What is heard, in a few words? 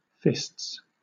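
"fists", English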